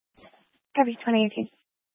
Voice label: Fire Department Dispatch; Grand Junction